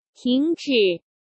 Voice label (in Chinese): 停止, dog commands; chinese